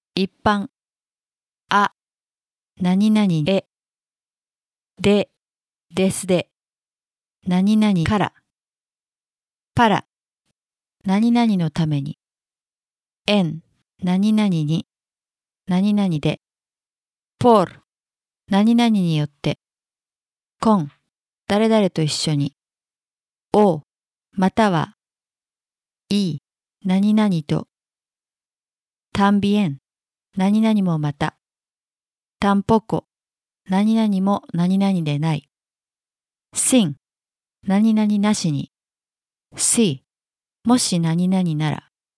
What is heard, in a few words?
Spanish basic word; basic spanish 2019